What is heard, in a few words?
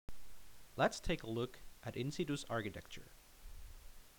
Narration